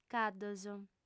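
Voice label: "caddos", female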